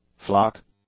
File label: "flock", English; nouns